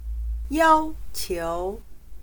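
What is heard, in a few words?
"yāo", Chinese; 要求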